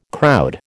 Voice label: "crowd", American

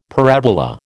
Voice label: "parabola", American

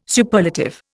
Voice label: "Superlative", Indian

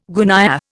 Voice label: "gunyah", Indian

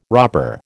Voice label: "robber", American

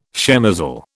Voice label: "shemozzle", British

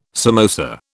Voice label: "samosa", British

